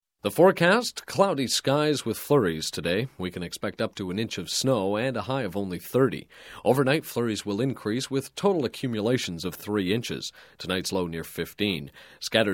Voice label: Broadcast, Weather Generic Winter Forecast, Wet; Radio; Sound Ideas; Series 2000

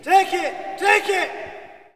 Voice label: Hockey Male "Take It"; Hockey Vocal Sounds

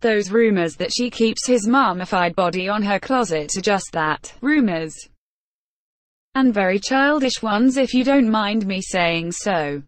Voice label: woman; DeadFright

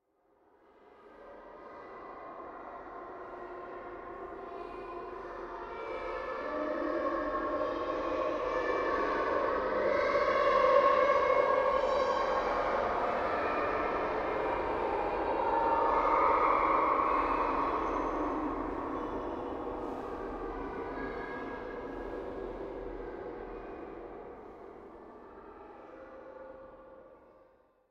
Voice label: Children Talking In Heavy Reverb Eerie Drone; Hollywood Edge; sound designer's toolkit v2

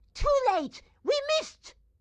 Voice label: grandma chopper missed; Blind Drive

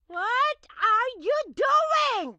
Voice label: grandma chopper annoyed; Blind Drive

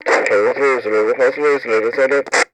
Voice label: Walkie Talkie Chatter; Communications; 1001 Sound Effects

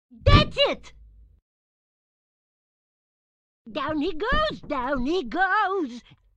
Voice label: tutorial hq chopper done grandma; Blind Drive

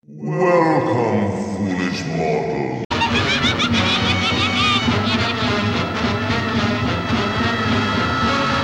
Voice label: Scary Sounds Effects Spooky Welcome with Laugh; 1001 Sound Effects; Halloween Scary Music and Sound Effects

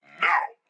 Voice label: tutorial police now; Blind Drive

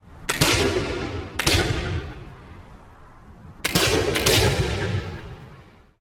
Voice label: Cowboy Cheers; Pitch Black Audio Pong

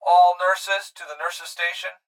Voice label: Hospital Pa Voice; Blastwave

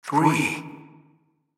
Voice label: Announcer; Pitch Black Audio Pong

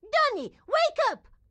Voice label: grandma chopper cmon; Blind Drive

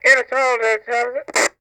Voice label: Walkie Talkie Female Chatter; Communications; 1001 Sound Effects

